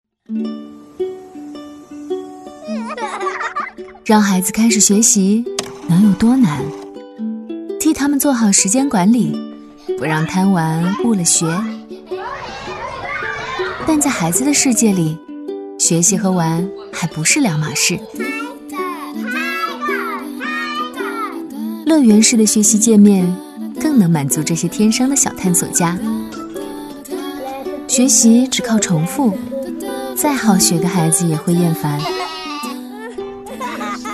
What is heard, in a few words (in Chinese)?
女 形象片 英语品牌片 亲切温馨; 腾讯开心鼠